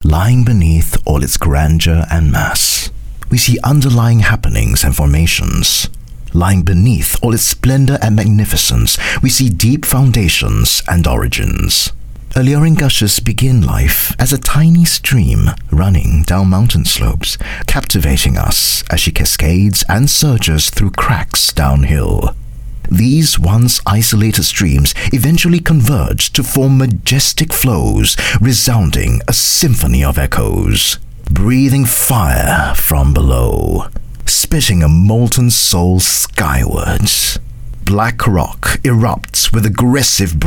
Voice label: Asian English Documentary Style Read

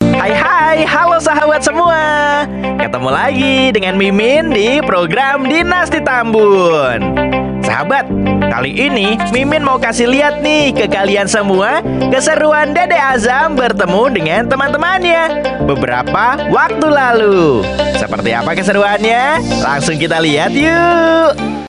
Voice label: Bahasa Indo TV Program; Dinasti Tambun RTV

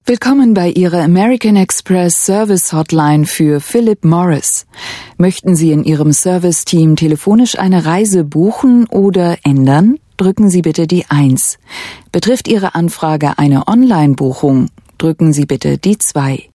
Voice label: IVR German

